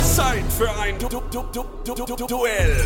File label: time for duell, german